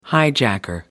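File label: "hijacker", British English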